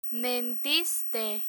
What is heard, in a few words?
"mentiste", Spanish; learn spanish